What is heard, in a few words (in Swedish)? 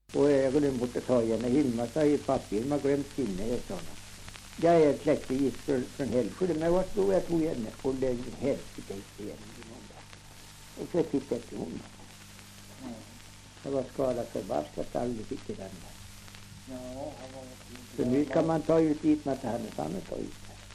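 Tuna Medelpad, dialekter, svenska sagor; Folkminnen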